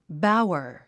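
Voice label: "bower", English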